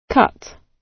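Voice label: "cut", British English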